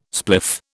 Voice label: "spliff", British

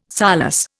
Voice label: "solus", Indian

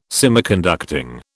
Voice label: "Semiconducting", British

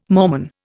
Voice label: "Mormon", Indian

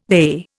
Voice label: "they", Indian

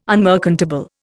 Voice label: "unmerchantable", Indian

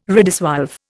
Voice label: "redissolve", Indian

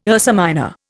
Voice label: "Ursa Minor", Indian

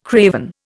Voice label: "craven", Indian

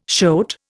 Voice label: "shoat", Indian

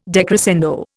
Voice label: "decrescendo", Indian

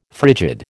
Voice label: "frigid", American

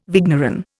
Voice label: "vigneron", Indian

